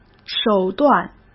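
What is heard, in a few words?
"手段", Mandarin Chinese; hsk; chinese-flashcards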